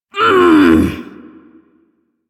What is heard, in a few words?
Ability Lift, Barks; Warframe; Corpus Lich; Persona B